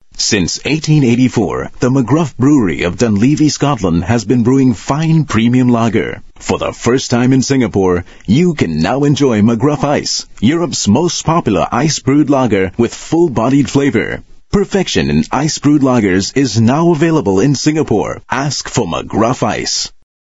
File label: Asian English